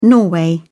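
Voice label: "norway", British English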